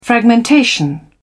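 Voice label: "fragmentation", English, British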